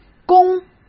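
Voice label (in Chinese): "供", Mandarin Chinese; chinese flashcards; hsk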